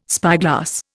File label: "spyglass", Indian